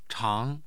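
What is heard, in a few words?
"chang", Mandarin Chinese, tone 2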